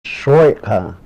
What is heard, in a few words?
man; Klallam